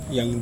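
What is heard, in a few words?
"yang", Pomo word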